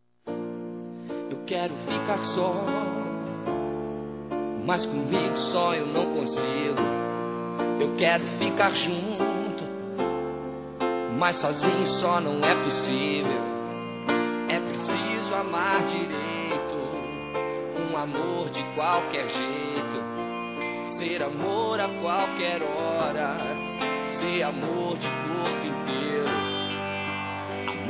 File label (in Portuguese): Suave Masculino